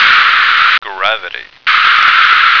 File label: "gravity", American